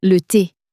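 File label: "thé", French, female; flashcards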